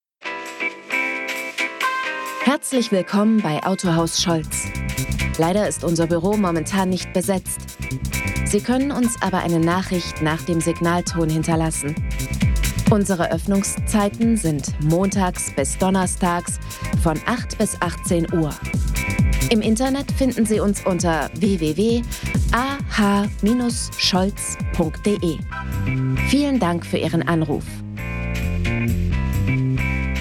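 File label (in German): Telefonansage, sprecherin; Hörbuch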